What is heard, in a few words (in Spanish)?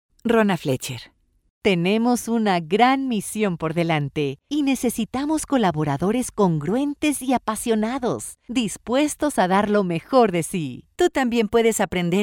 Espanol Narraciones